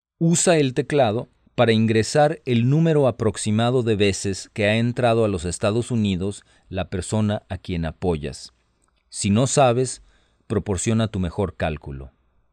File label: Spanish, hotline